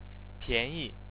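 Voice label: "pian2yi", Chinese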